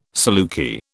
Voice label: "saluki", British